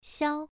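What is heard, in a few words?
"xiao" Mandarin Chinese, first tone, pronunciation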